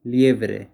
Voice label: Hare spanish

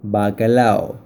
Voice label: Cod, spanish

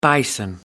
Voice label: "Bison" english

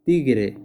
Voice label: Tiger spanish

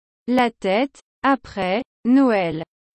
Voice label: La tete apres noel french

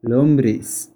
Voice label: Worm spanish

